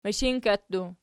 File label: "mishinkka", Archi